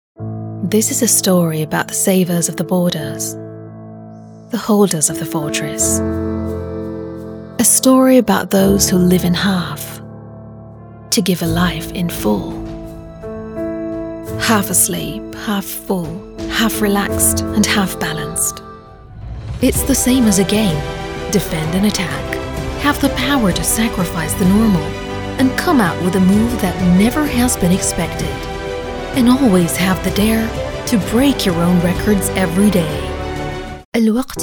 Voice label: Corporate Medical; Showreel

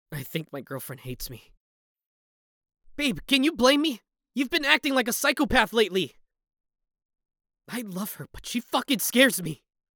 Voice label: Paranoid Boyfriend; 21 year old Voice Actor

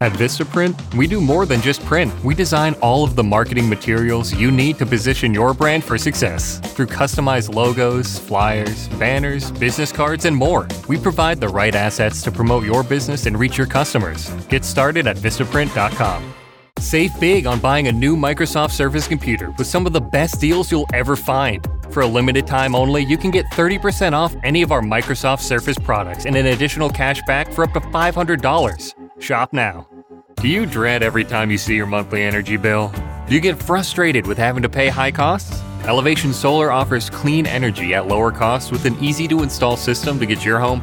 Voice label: Commercial, Professional Male Voice Over; Philadelphia based voice actor with a unique medium-low ranged voice

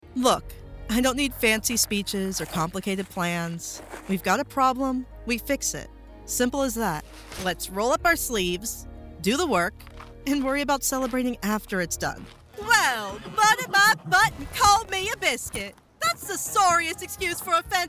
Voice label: Character

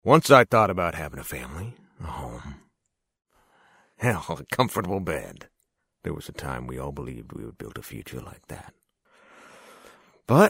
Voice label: Animation

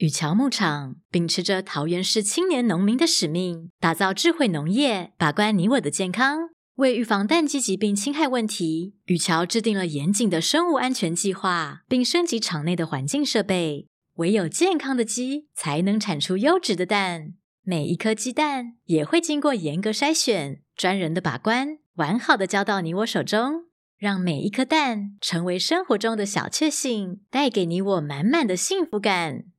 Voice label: corporate; female voice in Mandarin Chinese

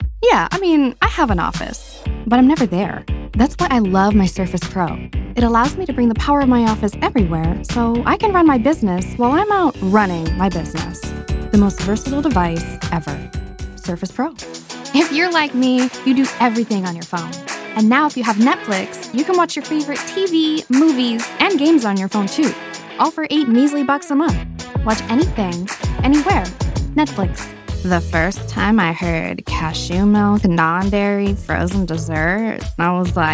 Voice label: Commercial, Millennial/Gen Z voice

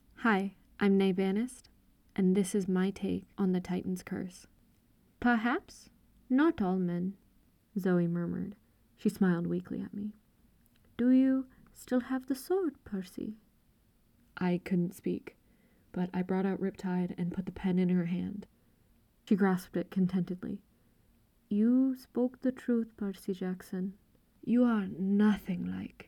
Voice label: Multi Character Narration

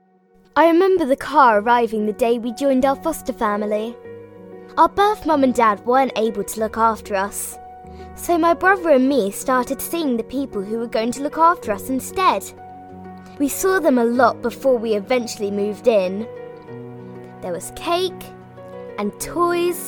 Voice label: Radio Advert Reel, Child Voice

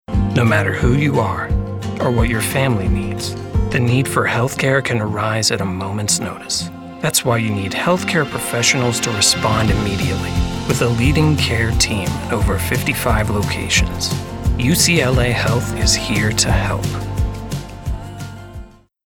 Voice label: VO Style Gentle, Sincere; Houston, TX

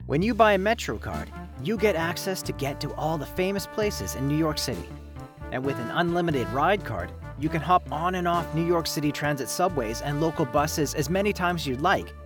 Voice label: Ad w music; MTA

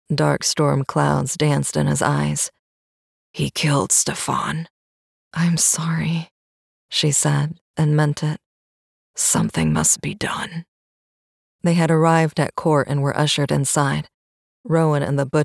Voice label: Audiobook Sample; Lebanese-American who grew up in the South

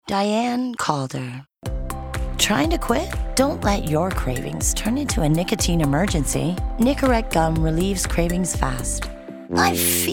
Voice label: Pirate Sound; textured unique voice

